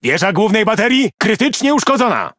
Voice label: Speech Defective Modules Main Gun Damaged, PL; World Of Warships